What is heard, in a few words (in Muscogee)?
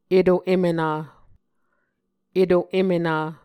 "eto em ena", Muscogee